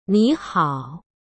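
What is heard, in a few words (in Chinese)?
"你好", Chinese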